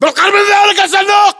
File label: landmark near crater, battle chatter, english